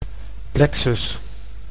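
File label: "plexus", Dutch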